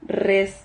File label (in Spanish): "rres", Silabas